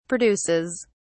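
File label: "produces", English, British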